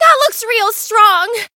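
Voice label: Voice encounter fear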